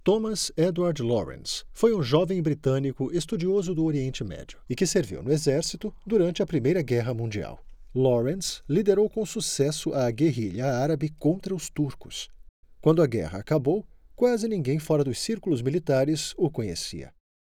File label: portuguese brazilian documentaries